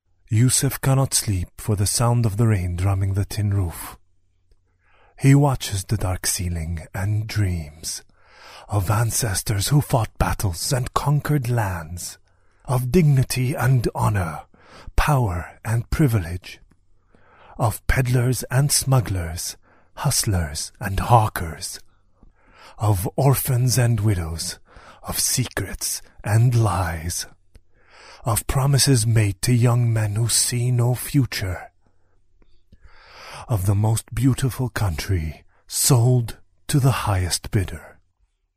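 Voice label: English Arab Male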